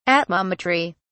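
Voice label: "atmometry", English, uk British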